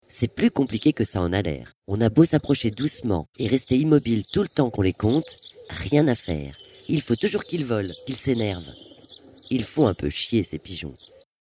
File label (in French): narration; LA TETE EN FRICHE